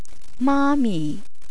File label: "maami", Cantonese; address